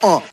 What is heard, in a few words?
"uh", male